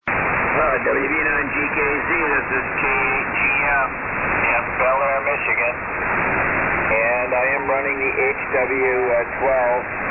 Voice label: Heathkit HW-12A and SB-200 Amp; Alden, Mi.